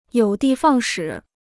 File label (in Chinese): "有的放矢", Chinese